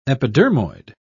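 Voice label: "epidermoid", British English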